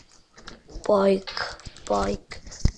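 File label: "bicycle", spoken word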